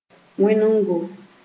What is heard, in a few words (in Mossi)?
"wẽnego", Moore